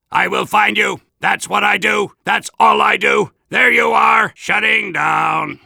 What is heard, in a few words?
Soldier robot